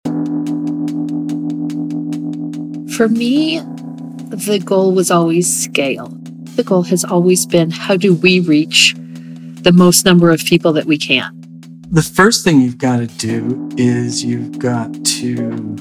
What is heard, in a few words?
Trailer; SI Mission to Scale